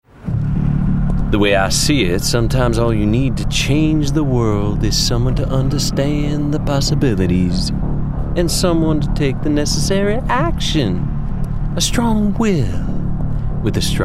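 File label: Gaming Character Villain Southern US, male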